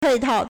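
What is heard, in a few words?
"pei4tao4", Mandarin Chinese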